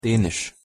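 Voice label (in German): "dänisch", German; pronunciation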